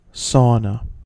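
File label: "sauna", American English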